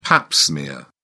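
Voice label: "pap smear", British English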